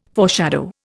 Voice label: "foreshadow", Indian

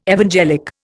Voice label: "evangelic", Indian

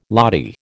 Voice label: "lathi", American

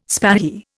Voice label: "spahi", Indian

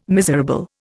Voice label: "miserable", Indian

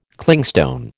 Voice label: "Clingstone", American

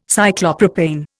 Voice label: "Cyclopropane", Indian

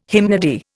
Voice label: "hymnody", Indian

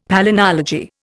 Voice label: "palynology", Indian; Dictionary

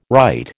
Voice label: "right", American

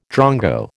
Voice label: "drongo", American

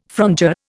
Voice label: "frondeur", Indian

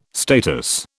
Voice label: "status", British

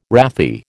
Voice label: "wrathy", American

